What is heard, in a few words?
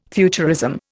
"futurism", Indian